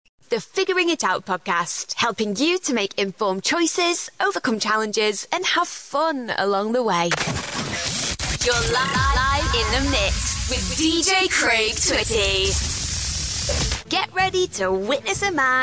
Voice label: english british podcasts